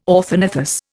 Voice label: "orthognathous", Indian